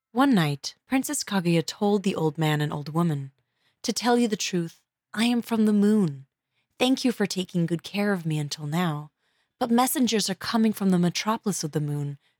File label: English